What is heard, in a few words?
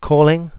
"calling", eng; sgh-d720; AnyVoice